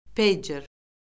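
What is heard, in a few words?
"peycer", Azerbaijani; Orfoqrafiya Lüğəti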